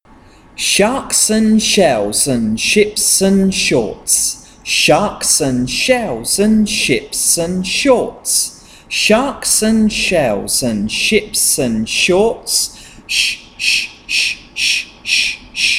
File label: digraphs chant sh